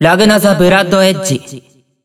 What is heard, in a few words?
System Voice Character Select; BBCF; Hibiki Kohaku; Ragna the Bloodedge